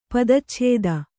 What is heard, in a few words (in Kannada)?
"ಪದಚ್ಛೇದ", Kannada